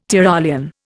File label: "Tyrolean", Indian